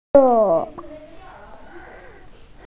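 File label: "lu4", Mandarin Chinese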